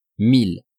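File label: "mille", French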